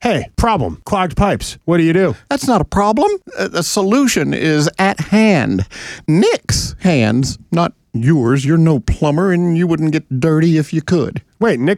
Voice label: RADIO AD; nicks plumbing radio ads